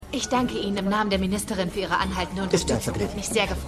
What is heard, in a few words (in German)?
Mann; Madam Secretary